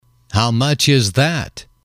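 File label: "how much is that", English, male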